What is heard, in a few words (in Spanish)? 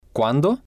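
"cuando", Spanish, male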